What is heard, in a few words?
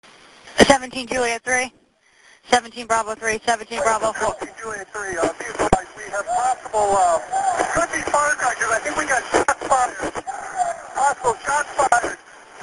Dispatch; Stoneman Douglas HS